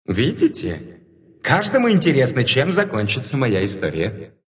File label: reconnect ru